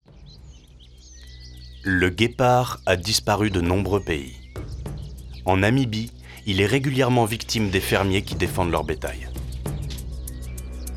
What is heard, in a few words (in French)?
Narration Documentaire animalier